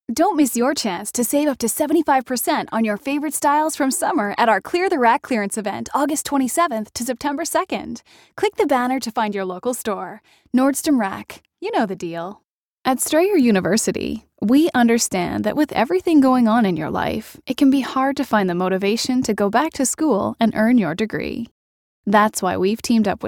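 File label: English Canadian spots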